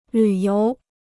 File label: "旅游", Chinese